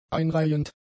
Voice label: "einreihend", German; adjectives